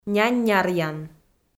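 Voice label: "нянь няръян" Komi-Zyrian, female; kpv audio dict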